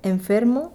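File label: "enfermo", Spanish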